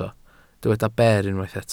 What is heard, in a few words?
"ber", Welsh